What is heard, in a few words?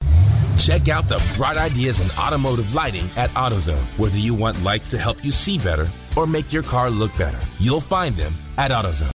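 English US, Commercial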